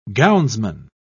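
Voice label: "gownsman", English, British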